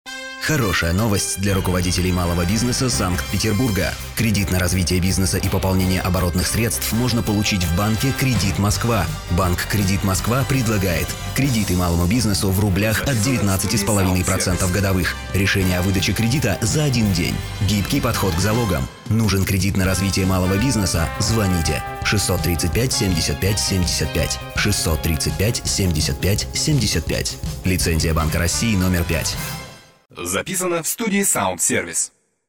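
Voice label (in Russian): advert, Молодой, Русский, Звонкий, Средний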